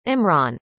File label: "Imran", arabic; indonesian boy names